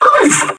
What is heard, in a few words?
pain, metropolice; npc